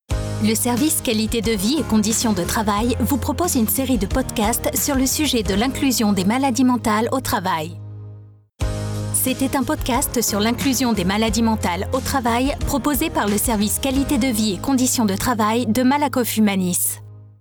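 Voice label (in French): podcast billboard, comédienne voix-off; MALAKOFF HUMANIS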